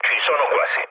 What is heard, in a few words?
near waypoint mood low, speech it; War Thunder